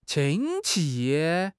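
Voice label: "qin1 qi5", Mandarin Chinese; HSK; HSK4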